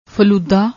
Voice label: "flooda", Punjabi